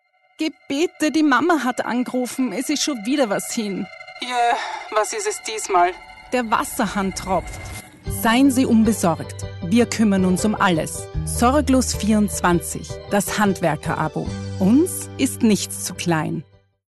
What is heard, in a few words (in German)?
WERBUNG HANDWERKER ABO; Sprecherdatenbank